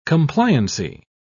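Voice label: "compliancy", British English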